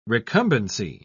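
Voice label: "recumbency", British English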